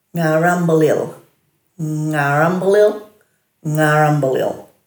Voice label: "ngarambilil-", Dhurga